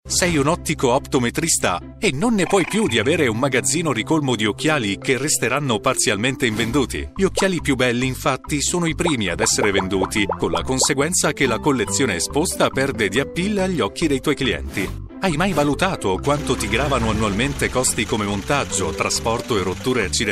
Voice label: Italian Native

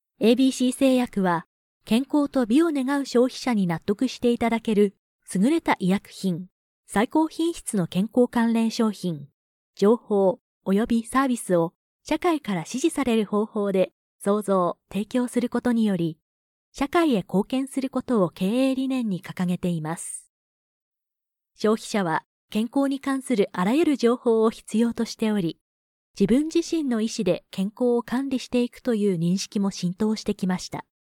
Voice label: Japanese Native

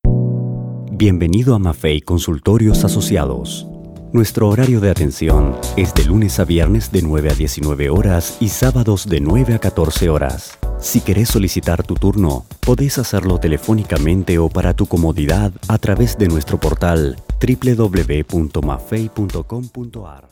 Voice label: Spanish Latin

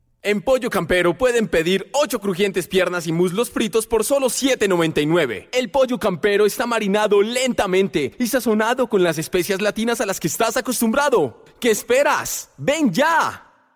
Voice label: Spanish Latin

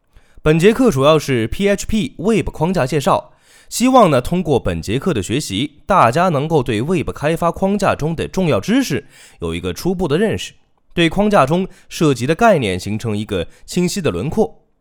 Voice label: Chinese Mandarin

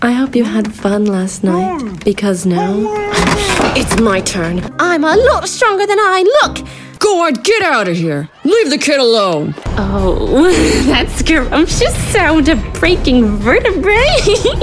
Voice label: English USA

